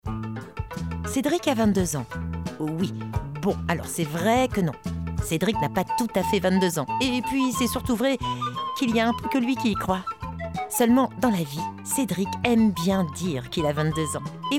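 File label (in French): Spot TV rigolote, voix off féminine; APP Mac Do; Complice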